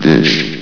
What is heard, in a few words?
"dish", vox; Source Engine; bshift